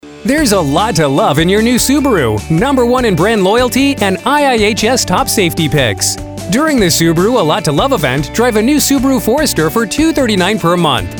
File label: friendly auto dealer